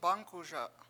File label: "bAngkuSa", Washo; tobacco